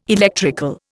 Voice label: "electrical", Indian

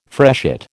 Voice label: "freshet", American

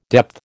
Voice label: "depth", American

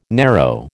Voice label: "narrow", American

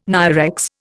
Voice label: "NIREX", Indian

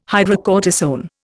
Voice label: "hydrocortisone", Indian

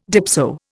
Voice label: "dipso", Indian

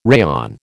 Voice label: "rayon", American

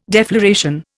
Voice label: "defloration", Indian

